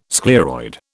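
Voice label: "scleroid", British English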